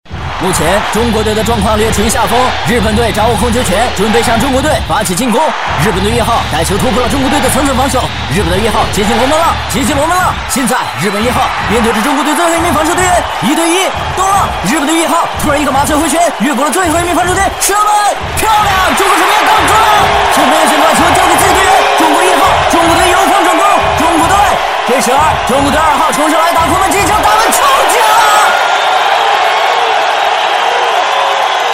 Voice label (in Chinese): 男, 动漫, 足球解说